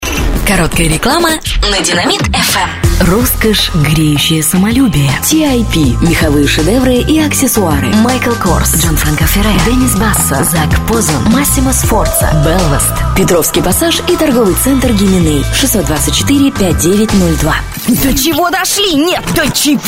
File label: diktor